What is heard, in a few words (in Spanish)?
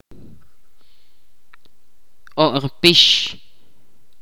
"rodilla", Ch'orti'